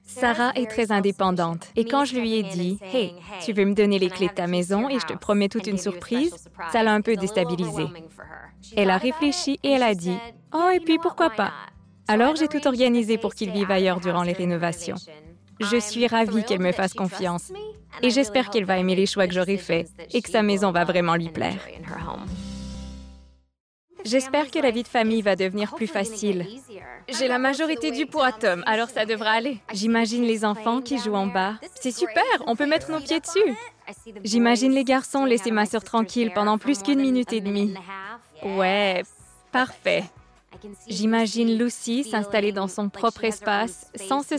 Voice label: Surimpression vocale et narration docu

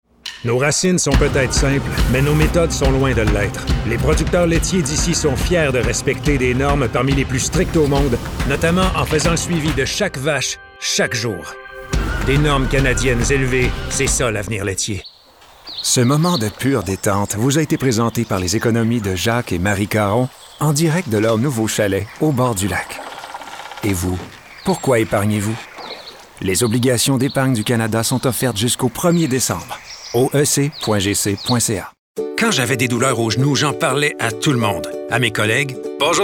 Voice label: Voix Pub

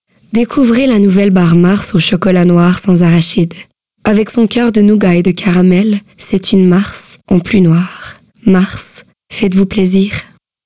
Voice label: Publicite; Mars

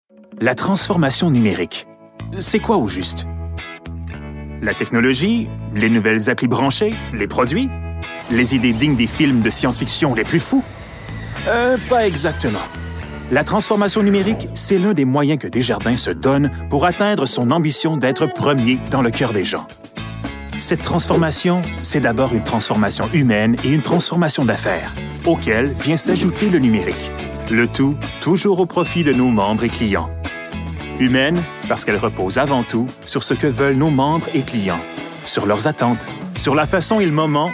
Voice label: NARRATION FRANÇAIS QUÉBÉCOIS

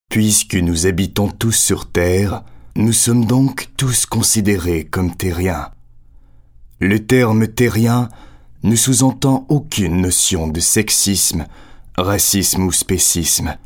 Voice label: Narration scientifique

